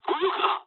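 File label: right wing mood low, speech japanese; War Thunder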